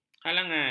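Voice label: "halangan", Malay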